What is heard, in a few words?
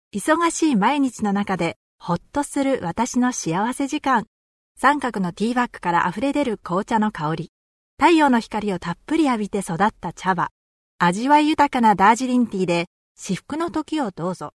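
Japanese, female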